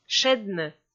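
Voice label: "шедны", Komi, female; kpv audio dict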